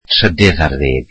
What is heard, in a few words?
"thirteenth", Welsh